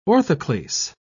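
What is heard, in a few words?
"orthoclase", British English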